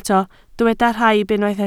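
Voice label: "rhaib" Welsh, spoken word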